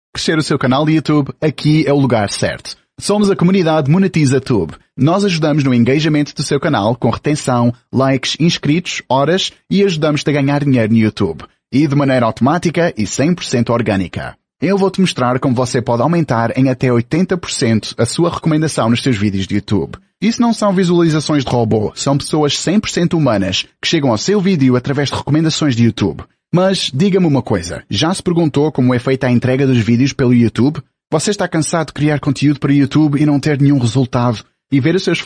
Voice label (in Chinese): 葡萄牙语男声